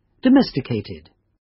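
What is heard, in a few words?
"domesticated", British; Flora and fauna, agriculture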